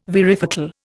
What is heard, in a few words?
"varifocal", Indian